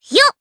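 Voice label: Vox Attack, Japanese; kingsraid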